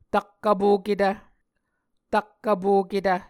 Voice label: "tak vpoketv", Muscogee